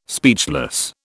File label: "speechless", British